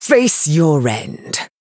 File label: female high elf attack